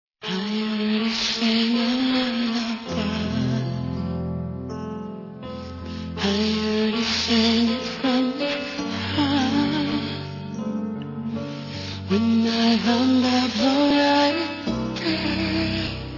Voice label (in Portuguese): Romântica Com Conquista, F